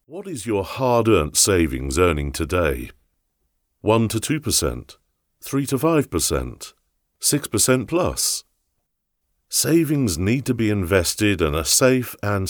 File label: Commercials